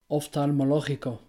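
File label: "oftalmológico", Spanish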